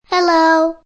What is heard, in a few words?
Child Hello, Little girl 7 years old says 'Hello!'; Talking Sound Effects; Voice; Lynne Music